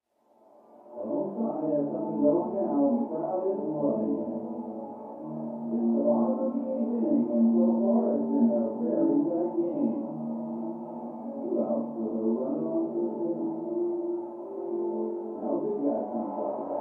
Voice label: Television; Baseball Game With Announcer, Crowd And Players. From Down Hallway.; sports